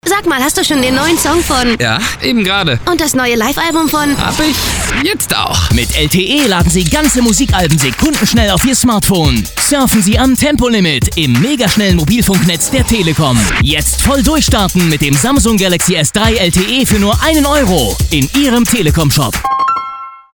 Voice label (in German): Werbung